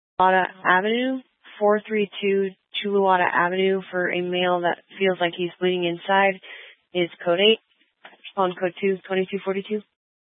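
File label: Fire Dispatch, scanner audio recording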